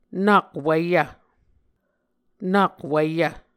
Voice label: "nak wiyv", Muscogee